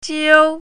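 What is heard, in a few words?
"jiu1", Chinese pinyin, first tone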